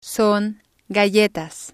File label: son galletas, Spanish